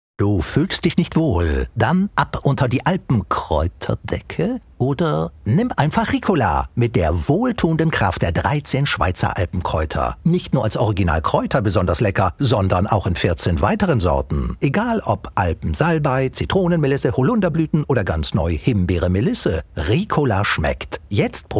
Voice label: Commercial (Werbung), dunkel, sonor, souverän, Mittel plus (35-65), German; Germany